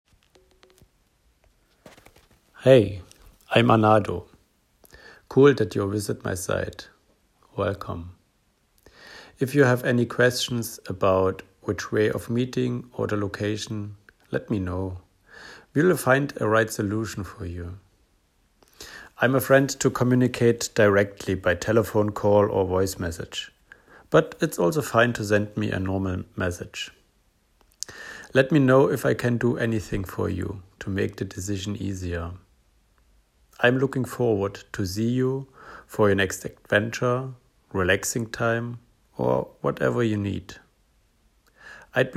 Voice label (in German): Escort Mann Audiovorstellung englisch